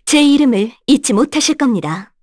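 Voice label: Vox Skill, Korean; Veronica; kings raid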